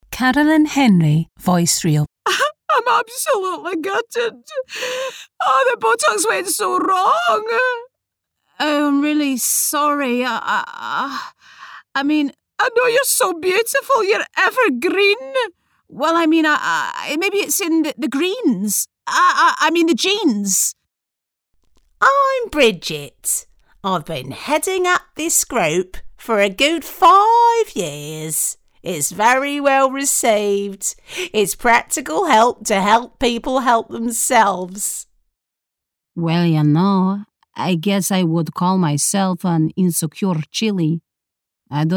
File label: Character